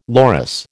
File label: "loris", American